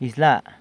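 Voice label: "Izyla", San Lucas Quiaviní Zapotec; Fine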